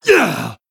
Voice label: Blood Elf Male Attack